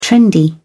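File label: "trendy", British English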